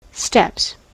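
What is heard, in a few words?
"steps", US English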